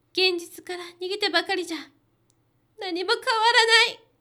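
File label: female; 現実から逃げてばかりじゃ、何も変わらない。; 高音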